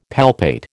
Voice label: "palpate", American